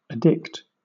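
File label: "addict", British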